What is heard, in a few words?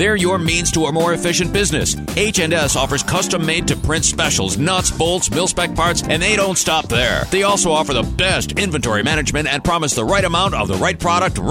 Sales Commercial